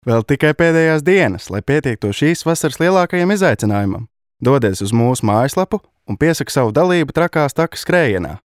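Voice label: Latvian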